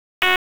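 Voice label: Fire Department HQ Dispatch; RFD; p25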